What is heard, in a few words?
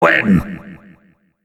soldier round start, announcer soldier; open fortress